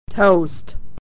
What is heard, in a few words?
"toast", pronunciation